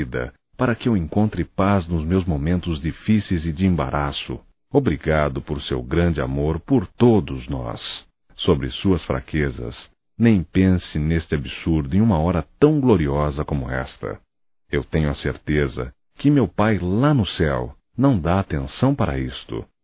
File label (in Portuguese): Aniversário Pai Masculino; Aniversarios; Telemensagens 2017